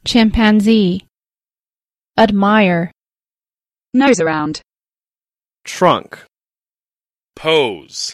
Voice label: chimpanzee, Pronunciation; animals; Mirror, Mirror on the Wall 魔镜，魔镜……大象最美丽！